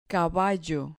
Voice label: "caballo", Spanish

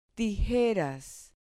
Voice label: "tijeras", Spanish

